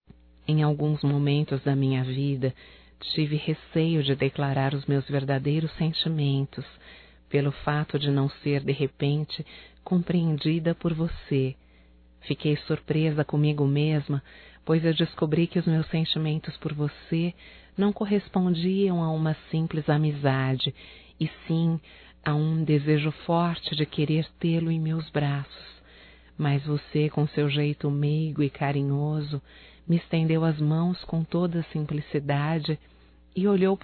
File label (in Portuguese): ROMÂNTICA (F); Românticas